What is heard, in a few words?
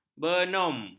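"benum", Malay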